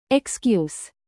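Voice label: "bass", noun, British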